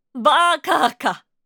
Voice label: バーカーか！！, システムボイス; リクエストシステムボイス

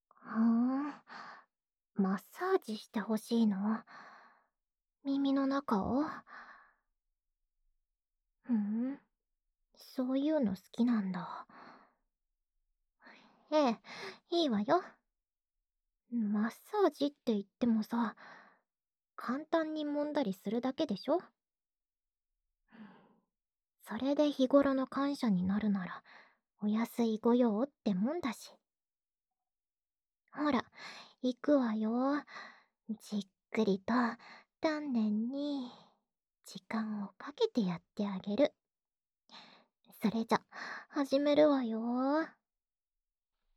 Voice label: 安眠用耳のマッサージ（両耳）, ASMR

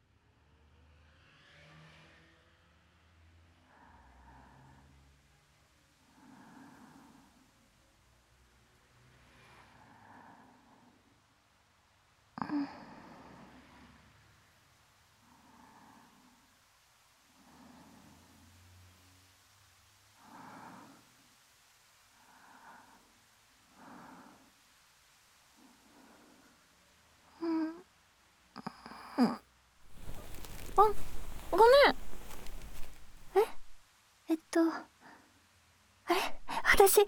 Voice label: 一緒に下校ASMR; ちょっと気まずくて、温かい沈黙; flac(96kHz24bit)

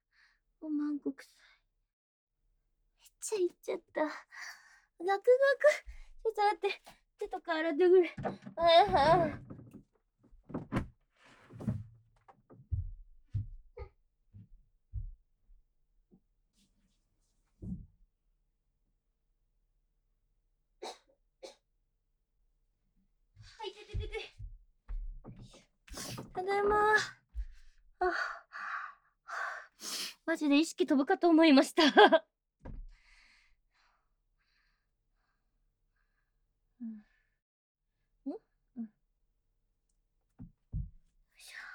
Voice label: フリートーク

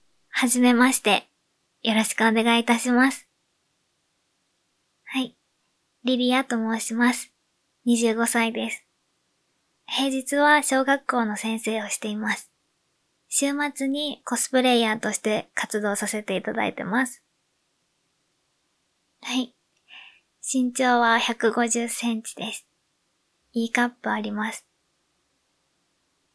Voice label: 自己紹介インタビュー

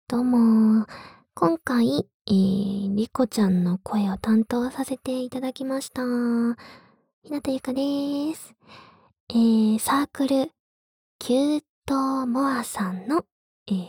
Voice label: フリートーク, ASMR

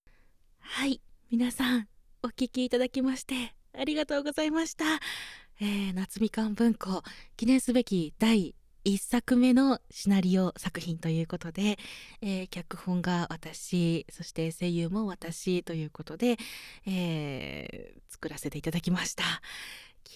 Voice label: フリートーク

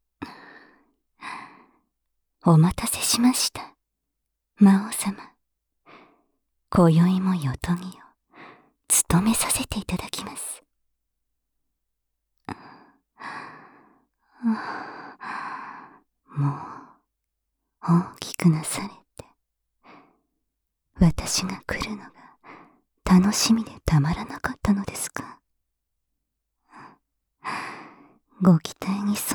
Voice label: 耳舐め, ASMR; サキュバスメイドの夜のおやすみ耳舐め騎乗位♪; SEなし

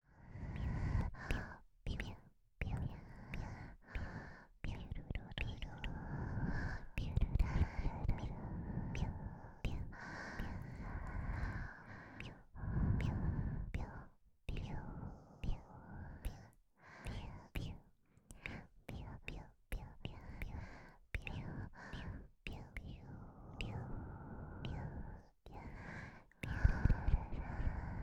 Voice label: 囁き; 我慢しないでいっぱい出して？ぴゅっぴゅっ; 両耳Ver

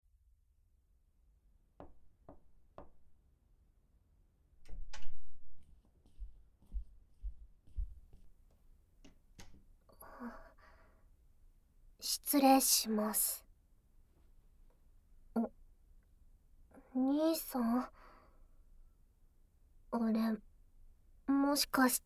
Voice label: 耳舐め囁き; 甘々ベロキス対面座位と中出しおねだり純愛ピストン

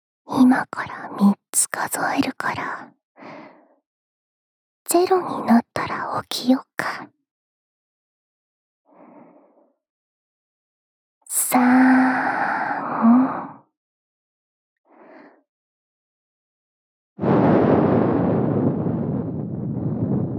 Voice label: スマホ用目覚ましボイス; SE有り

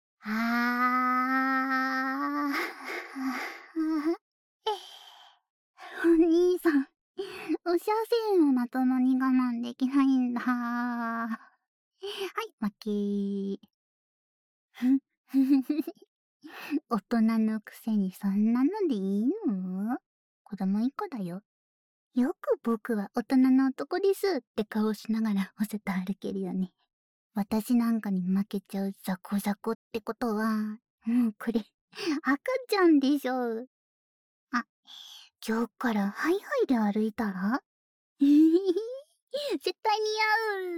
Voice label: 失敗ボイス, ASMR

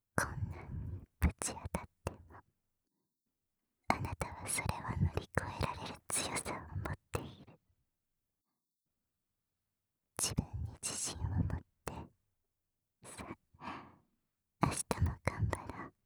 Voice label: 全肯定 ささやき ボイス

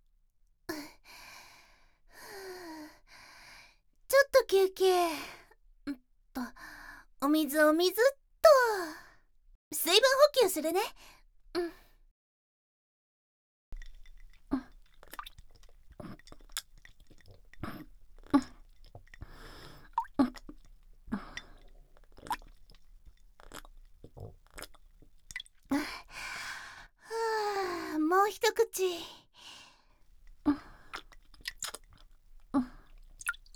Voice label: 配信女子の唾吐き SE入り

